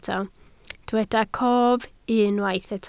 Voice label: "cof", Welsh